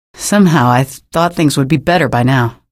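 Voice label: citizen remarks, female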